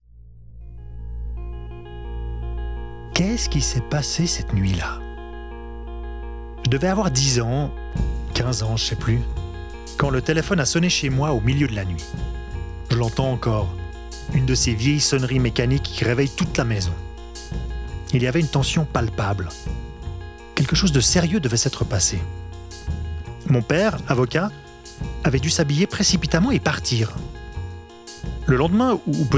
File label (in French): bande annonce; Evacuation musclee; SONOHR 2026